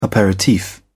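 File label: "aperitif", British English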